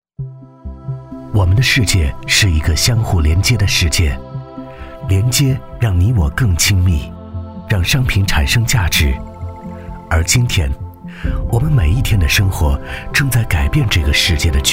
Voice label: 男 广告 温暖 抒情 磁性; 绿地商业

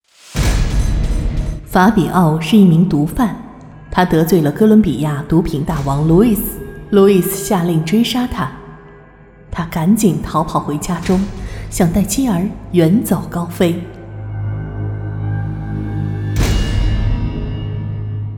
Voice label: 女8-动漫-毒贩, 女; 法比奥

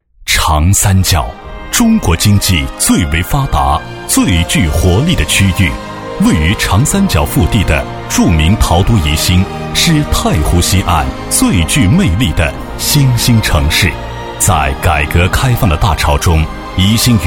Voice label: 男 专题 城市宣传片; 宜兴

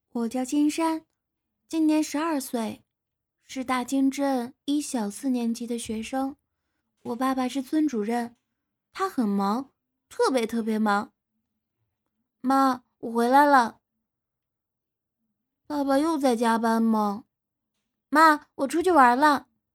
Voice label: 女 动漫 女童12岁女

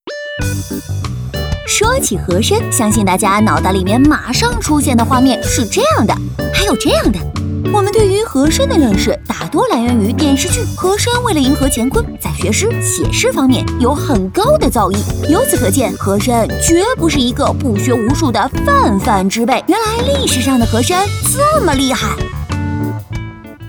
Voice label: 女; 飞碟说; 和珅

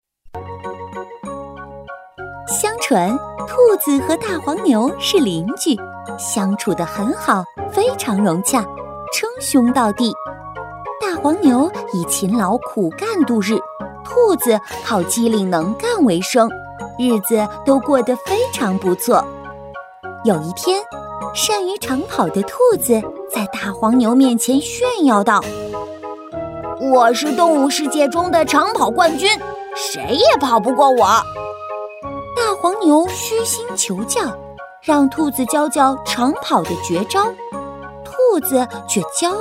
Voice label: 女 课件 12生肖有声读物

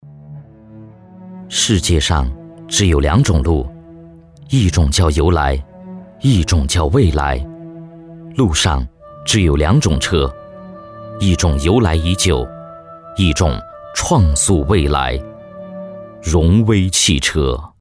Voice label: 男 广告 汽车

